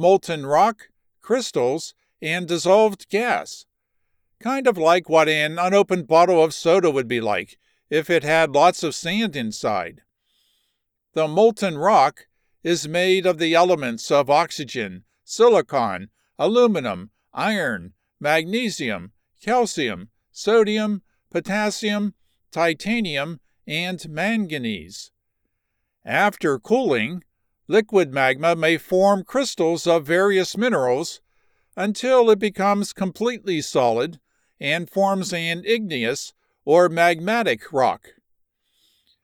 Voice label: Geology Volcano eruption, science medical narration